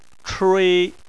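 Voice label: "chui" first tone, Chinese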